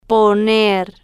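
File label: "poner", Spanish, female, slow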